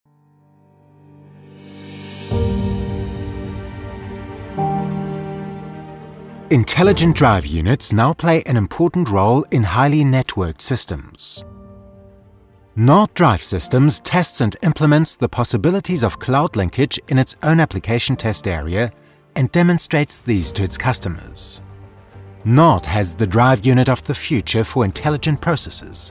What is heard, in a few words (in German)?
Industriefilm englisch